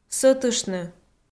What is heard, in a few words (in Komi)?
"сӧтыштны", Komi